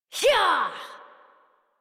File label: Horse Sprint Adult; Warframe; Duviri